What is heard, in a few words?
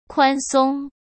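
"宽松", Mandarin Chinese; hsk; chinese-flashcards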